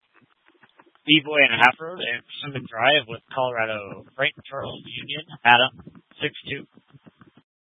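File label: Incident Tactical, scanner audio recording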